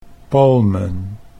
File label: "palmen", Dutch; 'palms'